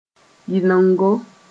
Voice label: "yɩlengo", Mooré; insistence

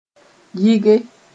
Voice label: "yɩge", Mooré

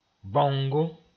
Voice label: "bãongo", Mooré

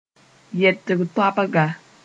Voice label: "yɛtg toabga", Mooré; verb ending

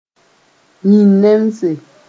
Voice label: "yĩn-nemse", Mooré

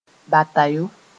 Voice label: "batayo", moore; boat

